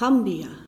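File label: "gambia", Dutch; gcompris-data; geography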